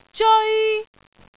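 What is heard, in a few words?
"zeoi1", Cantonese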